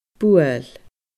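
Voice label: "buail", Scottish Gaelic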